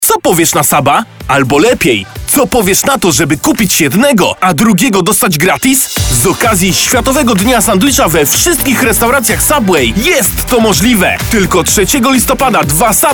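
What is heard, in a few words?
Polish, male, commercial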